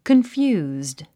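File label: "confused", English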